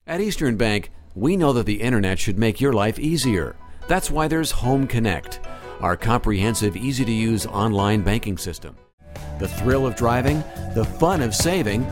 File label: VO Commercial